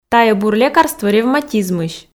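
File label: "тайӧ бур лекарство ревматизмысь", Komi, female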